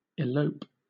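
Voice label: "elope", British English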